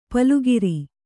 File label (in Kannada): "ಪಲುಗಿರಿ", kannada; palugiri